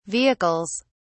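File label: "vehicles", British English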